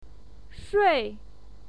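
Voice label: "shui", Mandarin Chinese, fourth tone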